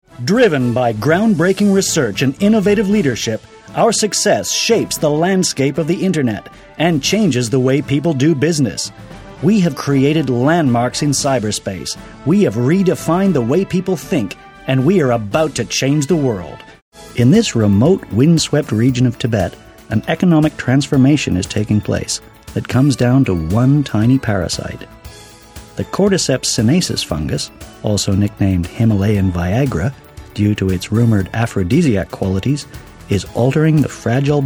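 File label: American, narration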